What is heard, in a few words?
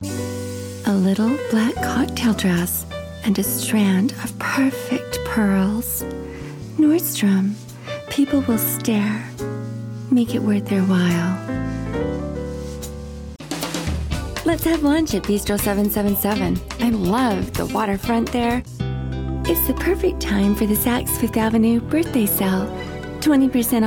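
promo demo, Promo, Voice Actress; Voice Demos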